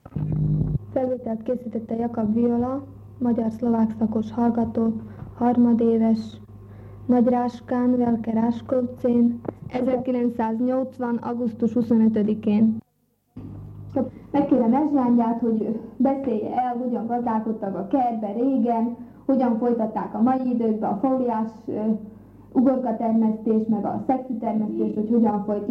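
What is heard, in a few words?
dialect collections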